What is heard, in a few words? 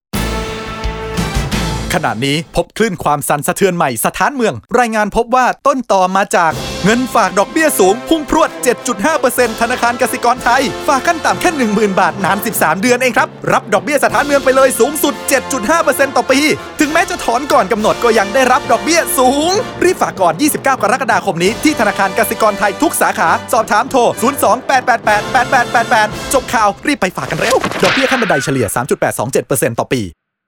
Adverting Spot